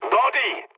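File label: French enemy detected mood low; War Thunder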